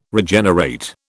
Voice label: "Regenerate", British